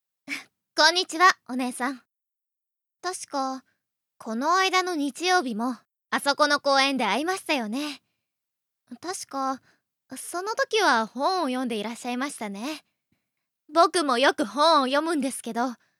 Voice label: boy